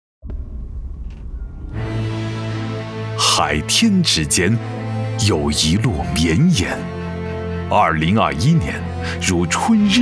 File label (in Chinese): 男-大气宣传片-城市宣传片